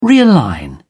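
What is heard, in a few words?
"realign", British English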